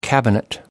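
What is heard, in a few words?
"cabinet", English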